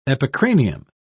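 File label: "epicranium", British English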